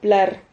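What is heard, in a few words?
"plar", Spanish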